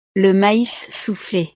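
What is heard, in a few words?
"le mais souffle", French; snacks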